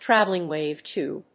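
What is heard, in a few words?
"Traveling-wave tube", English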